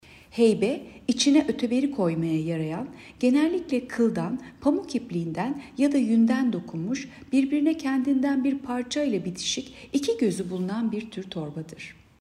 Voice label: turkce